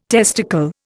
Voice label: "testicle", Indian